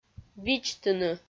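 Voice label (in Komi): "видзтыны", Komi, female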